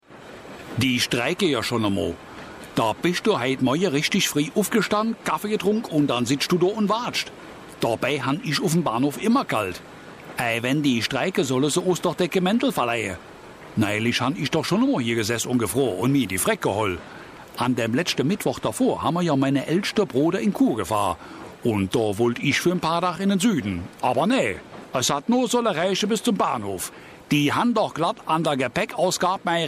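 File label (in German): Saarländer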